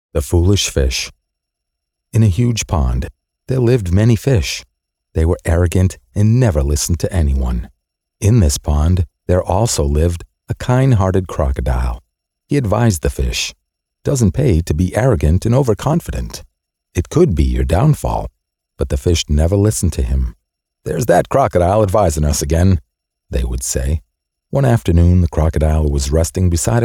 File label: Rich Male Voice; Xulon; The Foolish Fish